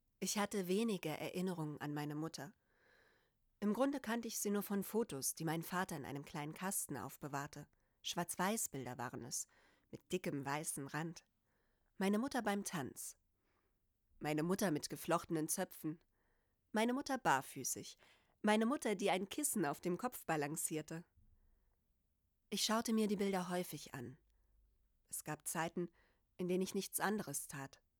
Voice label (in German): horbuch drama